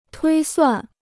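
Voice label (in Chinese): "推算" Chinese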